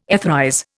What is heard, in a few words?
"etherize", Indian